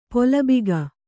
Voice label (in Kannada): "ಪುಲ್ಬಗೆ", Kannada